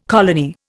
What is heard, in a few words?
"colony", Indian